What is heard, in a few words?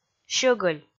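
"щӧгӧль", Komi, female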